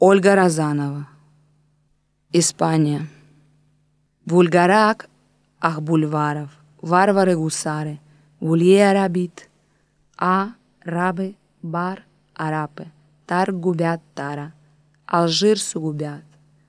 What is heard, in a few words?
spain sound poem; olga rozanova; Baku Symphony of Sirens